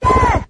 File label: "yeah", 8 bit arcade guy